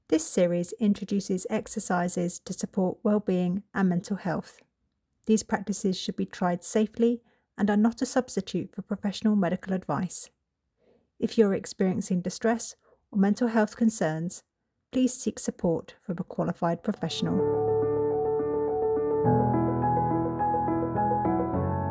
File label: leaf on the stream mindfulness narrative